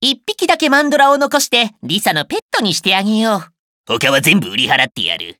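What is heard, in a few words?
info, Japanese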